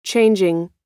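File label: "changing", American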